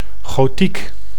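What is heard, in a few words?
"gotiek", Dutch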